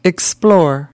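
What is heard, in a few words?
"explore", English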